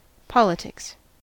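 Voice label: "politics", US English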